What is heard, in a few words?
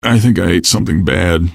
question, male; Garry's Mod; hl2